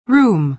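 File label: "room", American English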